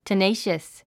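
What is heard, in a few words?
"tenacious", English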